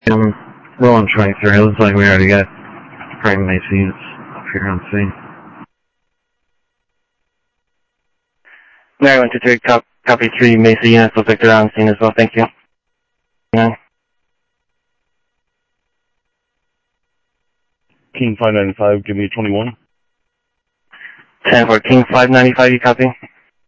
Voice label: scanner audio, Sheriff